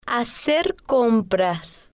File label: "hacer Compras", spanish